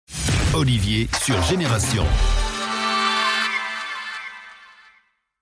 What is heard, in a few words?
Jingle